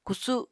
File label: "kusu", Apatani